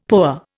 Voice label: "POA", Indian; Dictionary

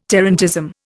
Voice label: "tarantism", Indian

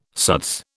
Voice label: "suds", British

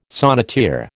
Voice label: "sonneteer", American

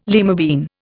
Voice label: "lima bean", Indian

